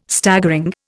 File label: "Staggering", Indian